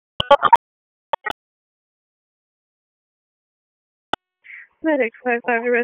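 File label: EMS Dispatch, p25; wake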